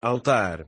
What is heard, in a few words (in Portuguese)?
"altar", European Portuguese